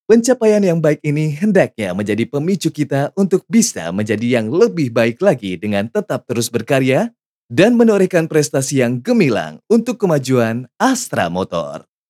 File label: SEMIFORMAL; INDONESIA